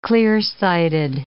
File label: "clear-sighted", English